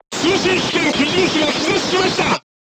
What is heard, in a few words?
voice message machine gunner contused, crew tanks japanese